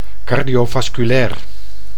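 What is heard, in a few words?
"cardiovasculair", Dutch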